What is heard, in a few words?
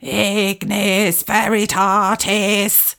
"ignis veritatis" slow, incantations older female; fire spells; psfx